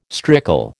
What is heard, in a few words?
"strickle", American